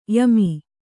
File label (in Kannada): "ಯಮೆ", Kannada